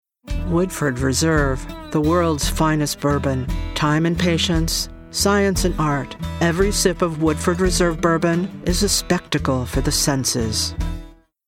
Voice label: Commercial; Woodford